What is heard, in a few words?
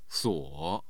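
"suo3", Mandarin Chinese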